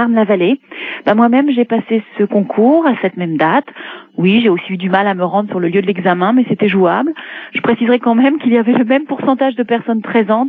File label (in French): Manif retraites RATP repondeur